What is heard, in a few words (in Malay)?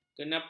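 "kenapa", Malay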